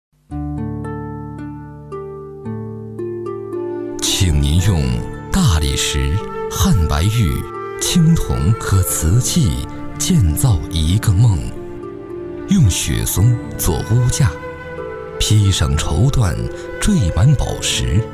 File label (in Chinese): 男, 纪录片; 圆明园